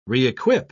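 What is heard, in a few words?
"re-equip", British English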